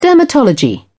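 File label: "dermatology", British English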